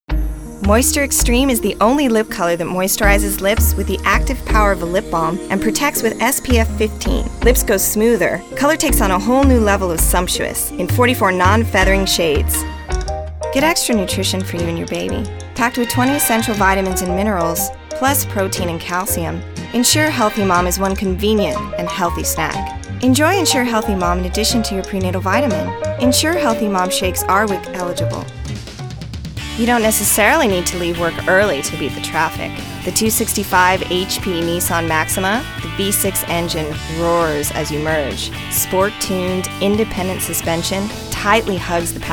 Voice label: english females